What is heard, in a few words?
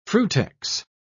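"frutex", British English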